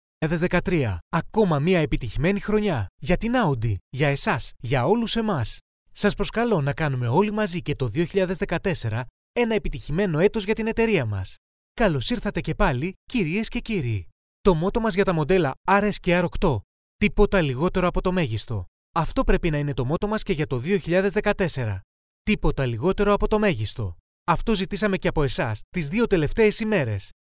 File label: male greek voice over talent narrative